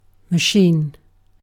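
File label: "machine", British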